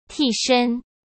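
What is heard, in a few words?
"替身", Mandarin Chinese; chinese flashcards; hsk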